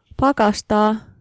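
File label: Finnish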